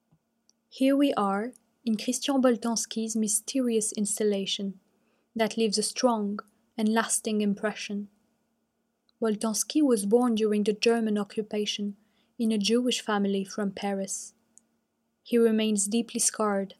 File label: GB adulte